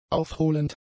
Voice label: "aufholend", German; adjectives